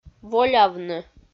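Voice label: "волявны", Komi, female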